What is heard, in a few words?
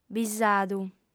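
"bisadu", lingua sarda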